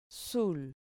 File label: "zul", Spanish syllable